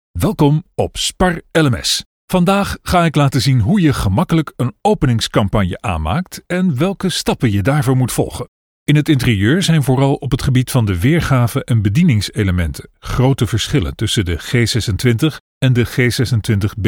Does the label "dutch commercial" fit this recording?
Yes